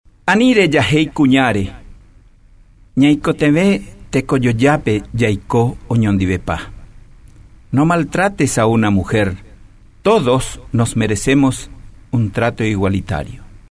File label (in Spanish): Spot Guarani Español